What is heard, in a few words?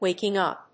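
"WAKING UP", English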